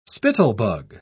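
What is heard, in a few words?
"spittlebug", British English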